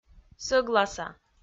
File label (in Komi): "сӧгласа", Komi